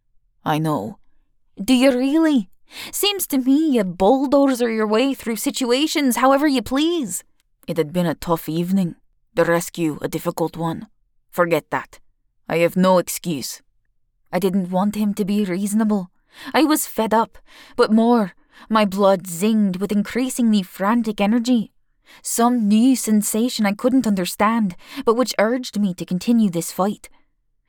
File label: Scottish